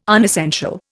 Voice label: "unessential", Indian